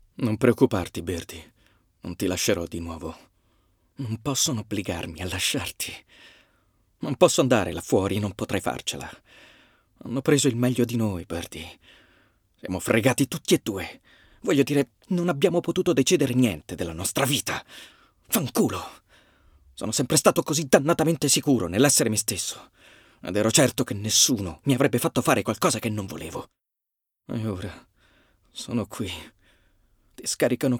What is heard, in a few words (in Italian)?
Doppiaggio, ITALIAN MALE; dubbing